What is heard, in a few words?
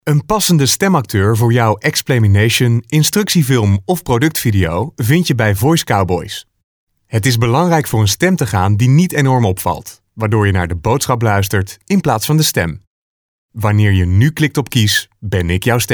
Explanimation